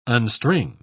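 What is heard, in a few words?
"unstring", British English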